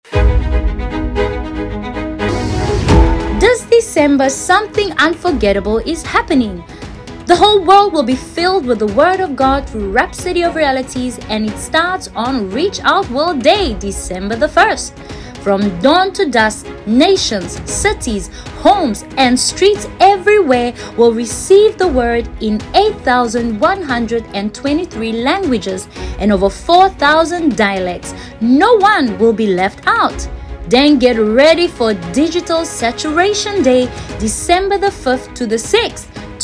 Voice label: RADIO PROMO